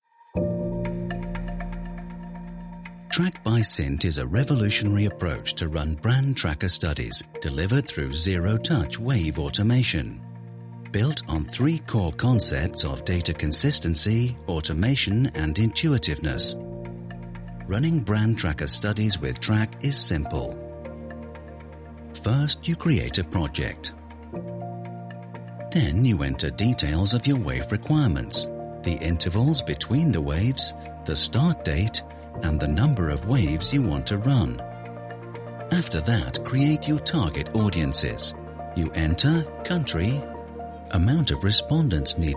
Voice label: British Voiceover Explainer Video; CINT Track

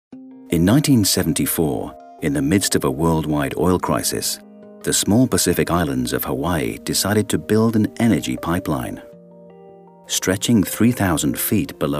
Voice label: British Voiceover, Documentary; BOTTLED WATER

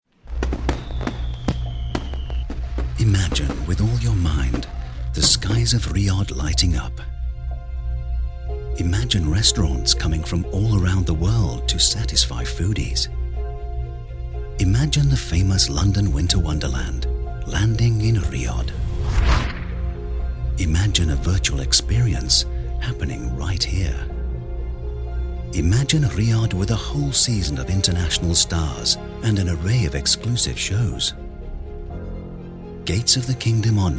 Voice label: British Voiceover, Documentary; DISCOVERY The Making Of Riyadh Season Episode1

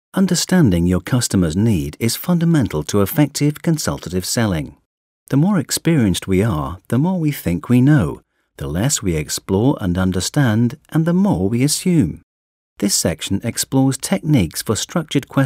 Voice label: British Voiceover eLearning; BRITANNIA PHARMACEUTICALS; Questioning

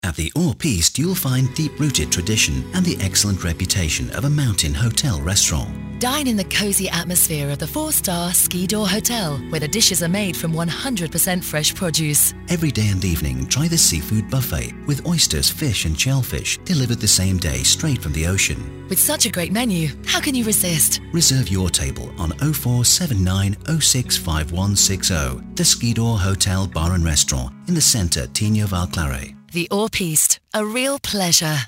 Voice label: British Voiceover Radio Commercial; HORS PISTES; RP

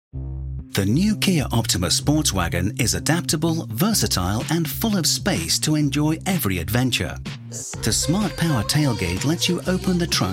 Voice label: British Voiceover Promo Film; KIA OPTIMA SportsWagon

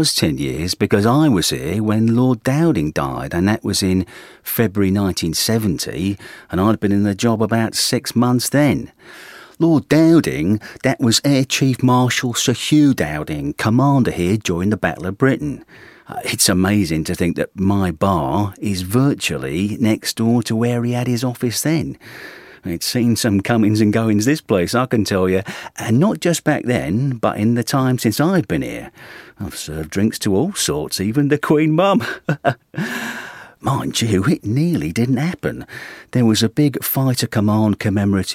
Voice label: British Voiceover Cockney Barman; BENTLEY PRIORY RAF MUSEUM

